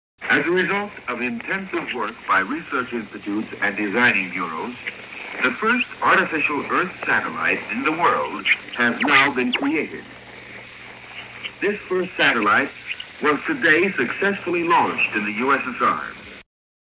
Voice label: Announcement of the launching of Sputnik, Radio Moscow; October 04, 1957; Russia, USSR